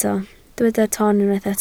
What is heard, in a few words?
"ton", Welsh